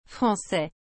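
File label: "francais", French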